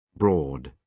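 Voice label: "broad", British English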